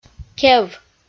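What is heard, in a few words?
"кев", Komi, female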